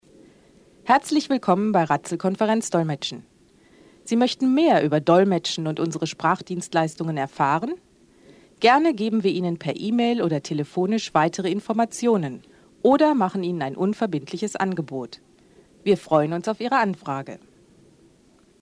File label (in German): konferenzdolmetschen; de